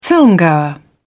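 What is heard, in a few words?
"filmgoer", British English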